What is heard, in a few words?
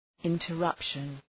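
"interruption", English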